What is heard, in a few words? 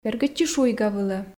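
"бергӧдчы шуйгавылӧ", Komi, female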